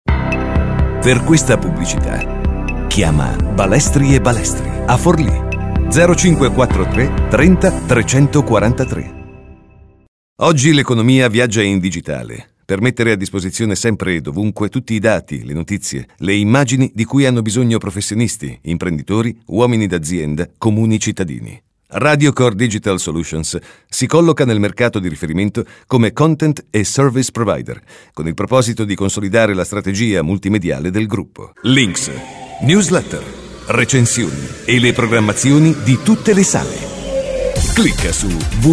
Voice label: Spot nazionali

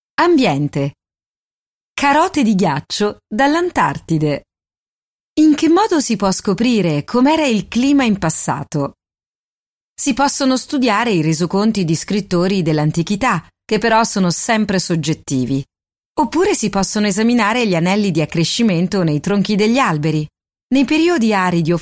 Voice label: audiolibri istituzionali

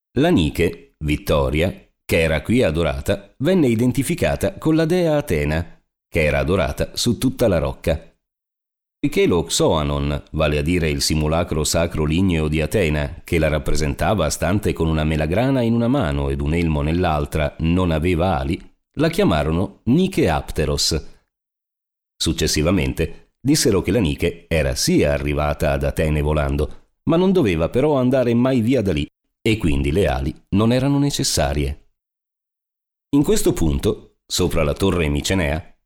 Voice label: audioguida

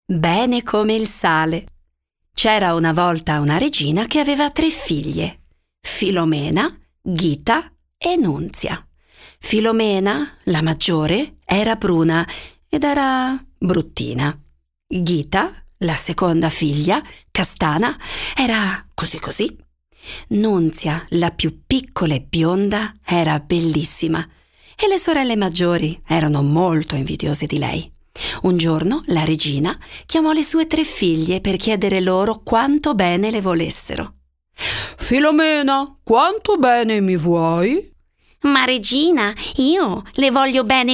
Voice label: audiolibri

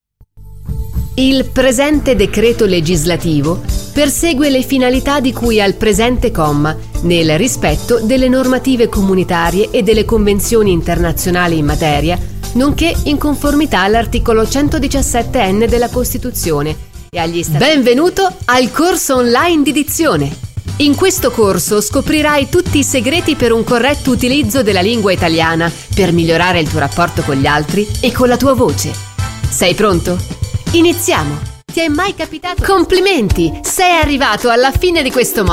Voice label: e-learning

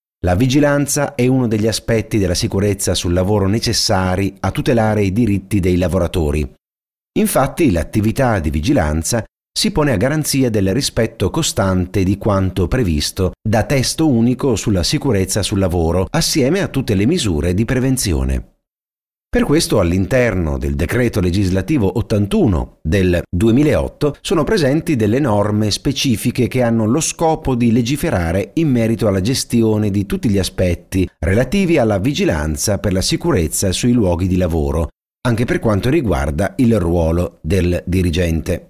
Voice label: e-learning

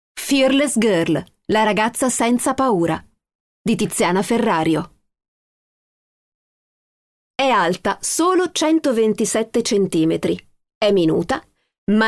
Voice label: audiolibri istituzionale

